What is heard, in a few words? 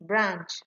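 "branch", Nigerian Pidgin, female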